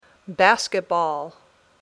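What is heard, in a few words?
"Basketball", English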